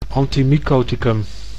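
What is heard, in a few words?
"antimycoticum", Dutch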